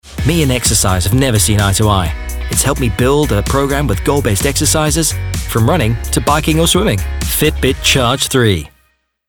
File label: Commercial Millennial; Fitbit